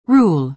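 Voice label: "rule", American English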